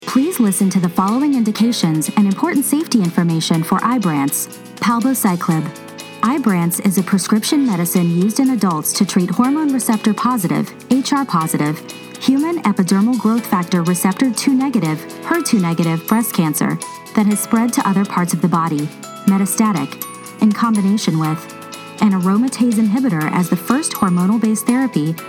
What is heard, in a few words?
Narrator